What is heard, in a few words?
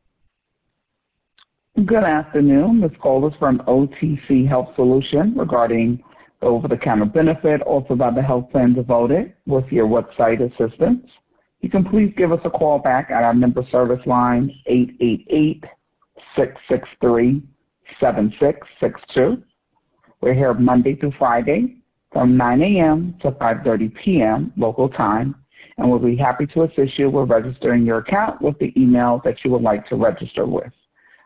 voicemail